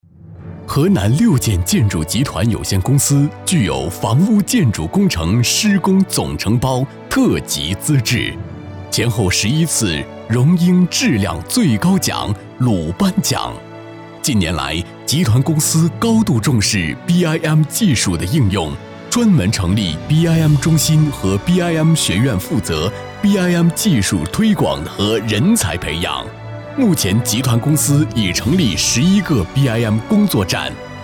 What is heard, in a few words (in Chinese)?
粤男 专题; 洛阳恒大绿洲